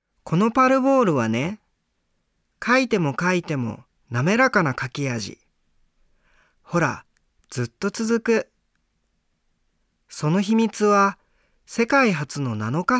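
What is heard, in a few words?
Voice Sample Narration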